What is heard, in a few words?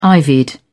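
"ivied", British English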